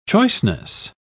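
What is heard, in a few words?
"choiceness", British English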